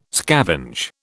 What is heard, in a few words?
"scavenge", British